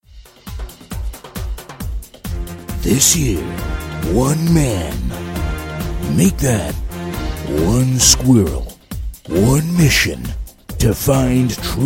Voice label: animation audio

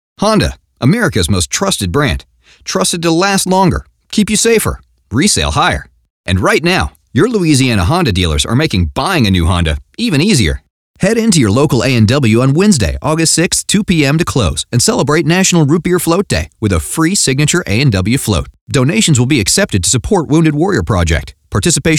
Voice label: Radio Commercial

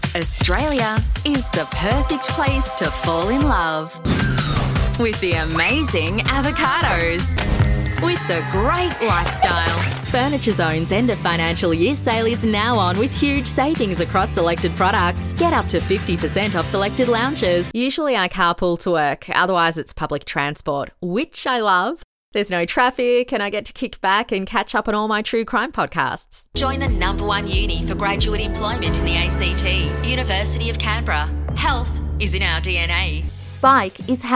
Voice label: Commercial, AU

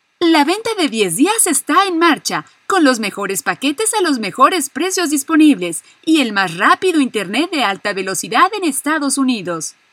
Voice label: 10 day sale Spanish female

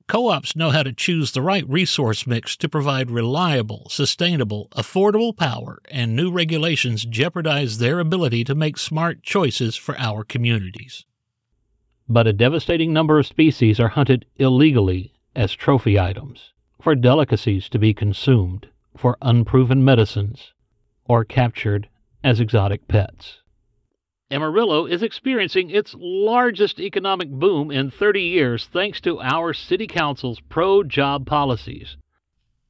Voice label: COMMERCIAL

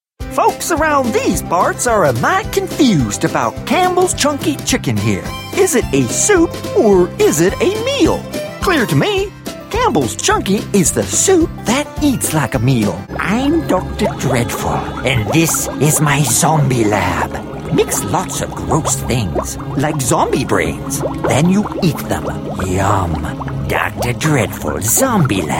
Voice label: Character Commercial

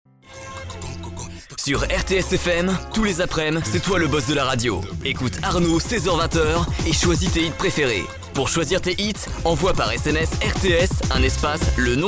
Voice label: Radio Imaging

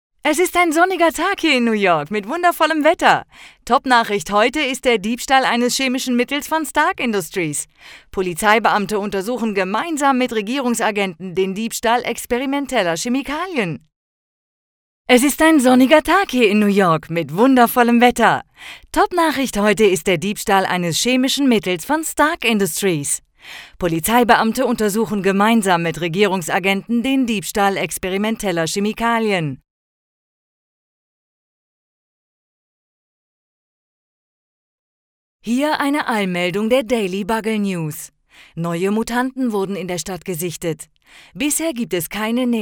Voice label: reporter; X men

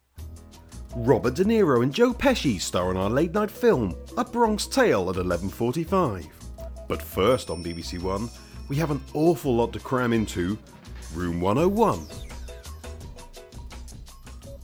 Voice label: Programme Link

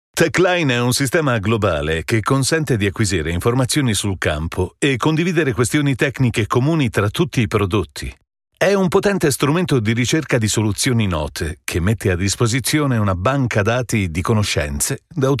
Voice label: eLearning guide

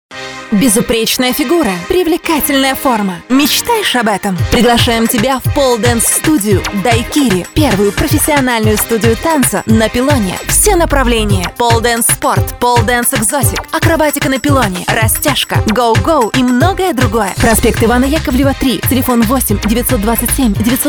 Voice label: Russian Commercial hard selling

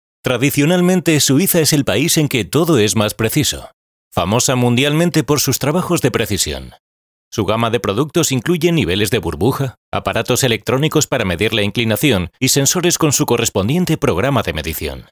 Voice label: Spanish

